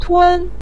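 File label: "tun" neutral tone, Mandarin Chinese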